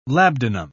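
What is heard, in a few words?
"labdanum", British English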